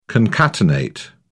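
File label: "concatenate", English, British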